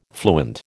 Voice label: "fluent", American